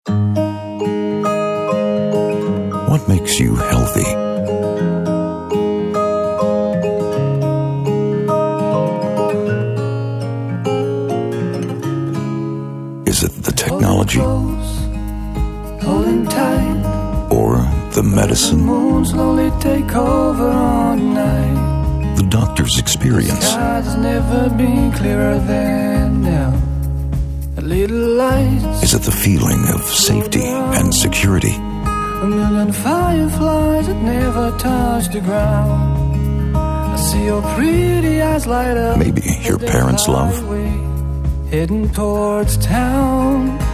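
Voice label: American English, Sanft; Barmherzige Brueder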